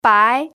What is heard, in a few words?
"bai2", pinyin